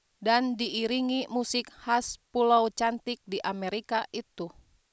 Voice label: news